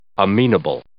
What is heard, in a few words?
"amenable", English